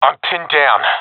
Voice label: pinned, professional epic; vo; Counter Strike Model Pack; CS:GO